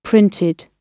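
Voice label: "printed", British English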